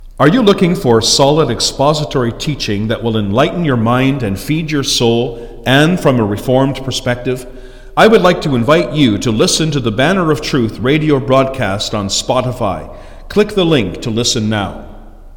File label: Spotify Promo